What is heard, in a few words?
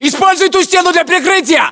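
order cover wall, battlechatter, Russian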